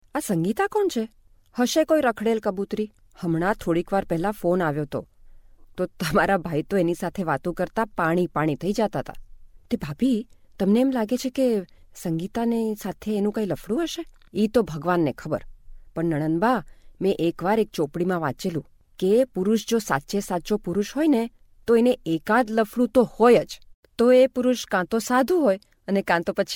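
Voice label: GU General, Gujarati; GoLocalise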